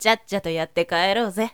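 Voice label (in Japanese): "tyattyato", Japanese woman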